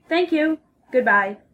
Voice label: phone formal